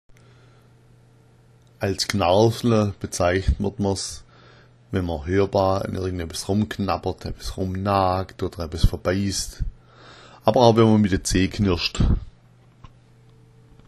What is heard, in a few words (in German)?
knarfla, schwaebisch